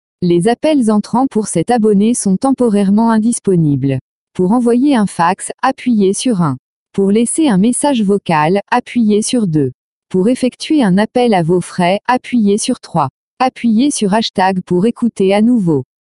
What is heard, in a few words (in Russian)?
меню фр